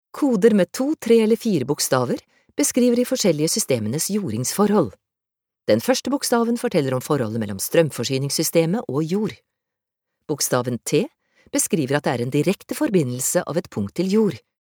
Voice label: no elearning

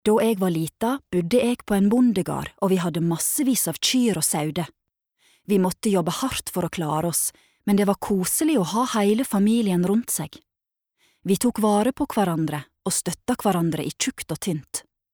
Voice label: no dub woman nordfjordsk nynorsk

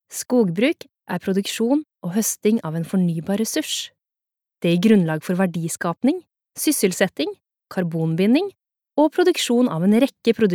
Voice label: no informativ, norwegian, female

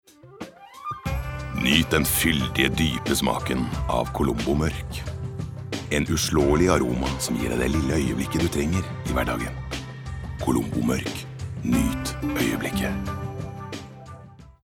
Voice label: no soft sell

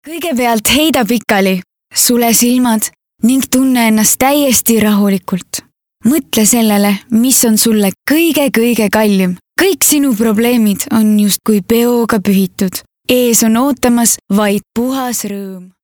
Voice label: Estonian

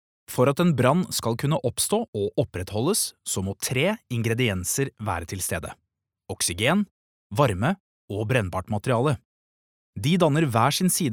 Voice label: Norwegian elearning, norwegian, male

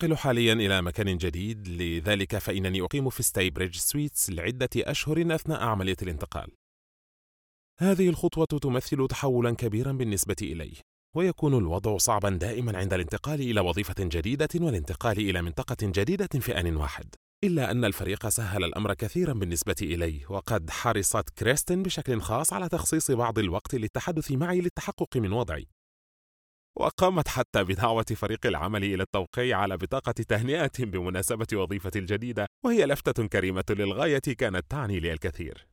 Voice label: arabic informative

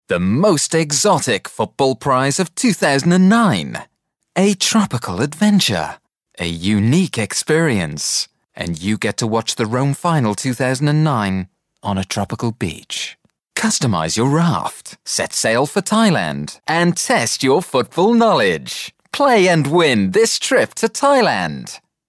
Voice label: uk commercials

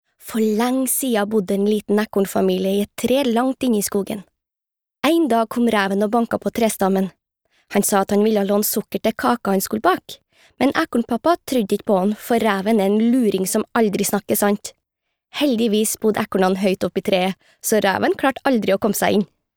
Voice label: no childrens book dialekt trondersk

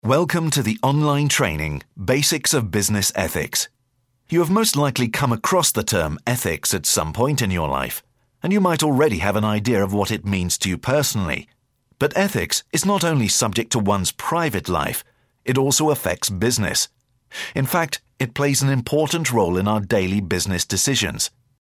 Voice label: uk elearning

